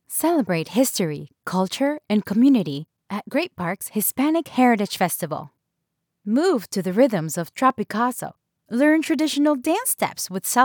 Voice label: radio; Hispanic heritage